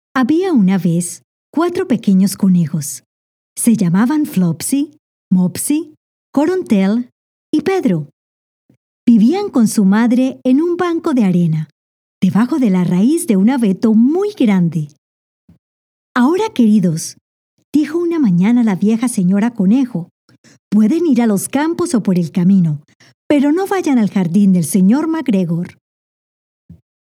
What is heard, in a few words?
Narration Kids